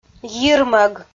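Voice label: "йирмӧг", Komi, female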